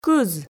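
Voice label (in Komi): "кыз", Komi, female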